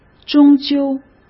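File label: "终究", Mandarin Chinese; hsk; chinese-flashcards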